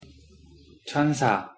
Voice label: "천사" Korean; pronunciation

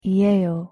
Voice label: "이에요" Korean; pronunciation

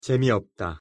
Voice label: "재미없다" Korean; pronunciation

